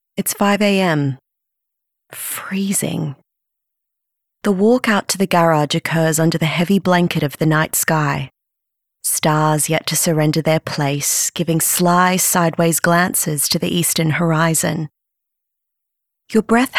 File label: Narration